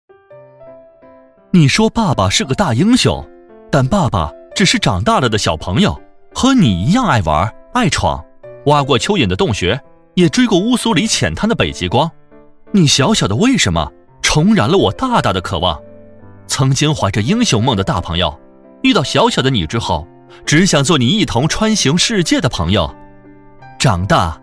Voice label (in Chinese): 男 MG 明亮活泼